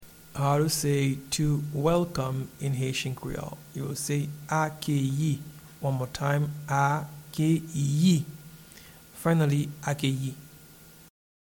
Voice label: To welcome in Haitian Creole Akeyi, audio translation; “To welcome” (English) translates as “Akeyi” in Haitian Creole